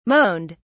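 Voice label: "moaned", British English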